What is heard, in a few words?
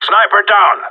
sniper down, radio; GSG9